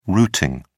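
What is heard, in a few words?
"routeing", British English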